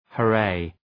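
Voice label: "hurray", English; {hə’reı}; Επιφώνημα; ουρά!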